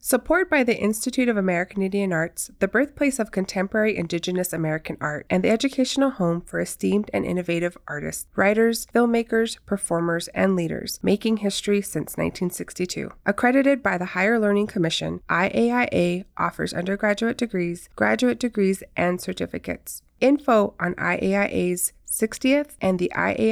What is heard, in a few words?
radio ad effects; native america calling